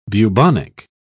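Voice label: "bubonic", English, British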